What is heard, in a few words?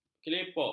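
"kelipuk", Malay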